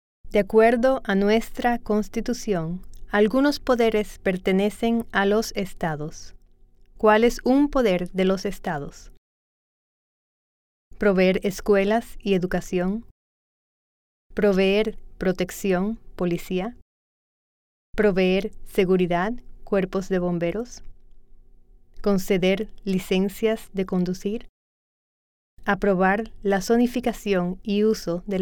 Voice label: Spanish